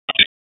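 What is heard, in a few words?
Police Spec Ops Tac, radio; wake; p25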